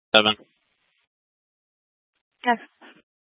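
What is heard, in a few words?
Incident Tac 3, Scanner audio recording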